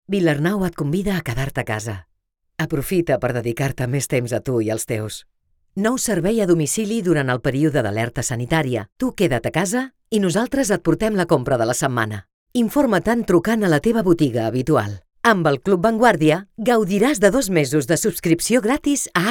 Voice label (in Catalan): COMERCIAL CAT Home Studio